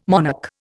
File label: "monarch", Indian